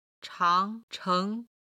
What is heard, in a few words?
"chang cheng" Chinese